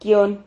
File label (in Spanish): "quion", Silabas